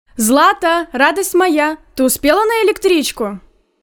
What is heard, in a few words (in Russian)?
ГОЛОС В ТЕЛЕФОНЕ